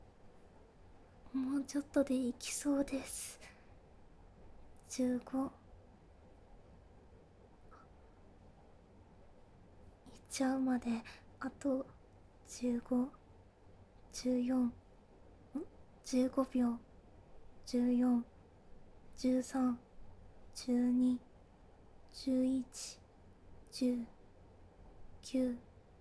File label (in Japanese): カウントダウン ステレオ